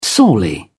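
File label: "sorely", British English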